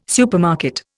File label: "supermarket", Indian